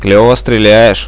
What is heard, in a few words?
nice shoot, radio bot; Reallite